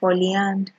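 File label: "falling hand", Nigerian Pidgin, female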